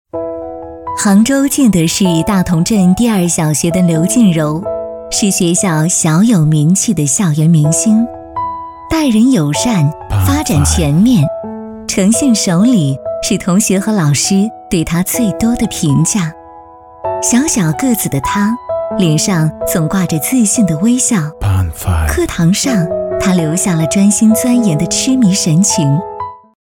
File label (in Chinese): 女 人物专题